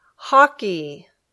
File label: "hockey", English